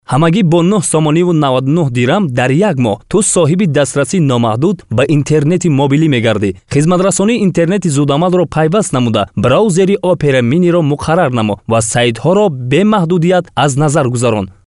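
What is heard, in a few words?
Tajikistan male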